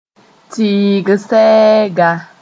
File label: "tɩɩg sɛɛga", moore